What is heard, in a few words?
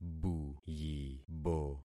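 "buyibo", concatenated syllables; Affixing experiment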